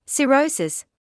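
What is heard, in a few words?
"cirrhosis" English, British; sɪˈrəʊ.sɪs; si-ROH-sis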